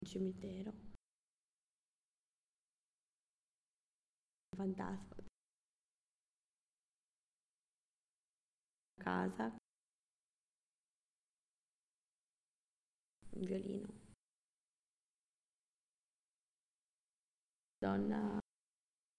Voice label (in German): Zusammengefugte Worter Langsam Italienisch